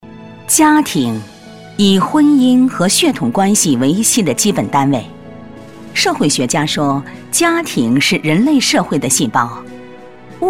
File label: chinese mandarin voiceover locutora china